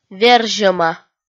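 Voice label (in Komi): "верзьӧма", Komi, female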